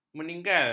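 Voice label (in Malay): "meninggal", Malay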